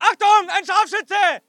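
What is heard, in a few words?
voice german infantry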